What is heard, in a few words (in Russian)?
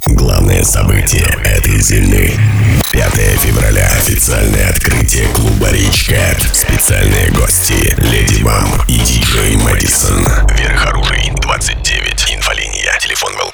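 АУДИО АФИША, Диктор; Рич Кэт - Открытие Клуба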